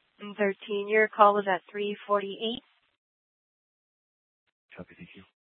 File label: Mesa PD Fiesta Dispatch